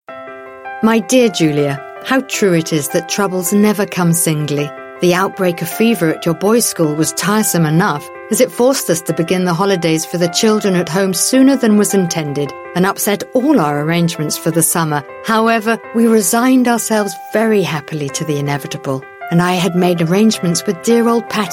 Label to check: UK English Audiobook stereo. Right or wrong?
Right